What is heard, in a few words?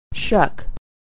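"shuck", American English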